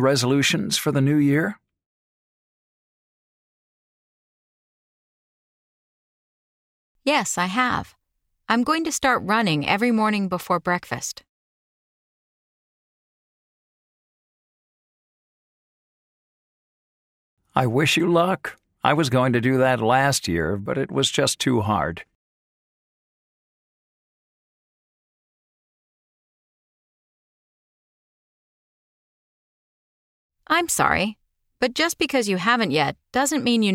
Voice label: Pronunciation Practice; Connectivity 3